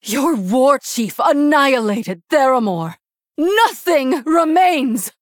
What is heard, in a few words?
Human Female